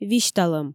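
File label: "висьталӧм", Komi, female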